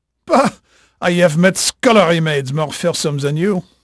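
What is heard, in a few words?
French male, voice; Pirates Of The Caribbean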